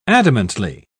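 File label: "adamantly", English, British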